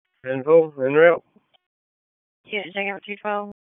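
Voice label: Raleigh Police Northeast District Dispatch; wake; p25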